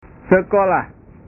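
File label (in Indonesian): "sekolah", Indonesian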